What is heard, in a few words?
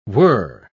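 "whirr", spoken word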